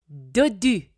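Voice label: "dodu", French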